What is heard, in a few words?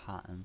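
"pan" third tone, pinyin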